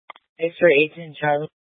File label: Phx PD Dispatch, scanner; C- Chandler